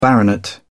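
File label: "baronet", British English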